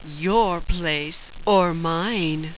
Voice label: "your place or mine", sultry; tactics